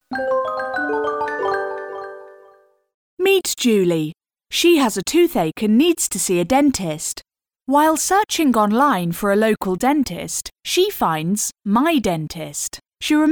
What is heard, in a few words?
Non-Fic Narrative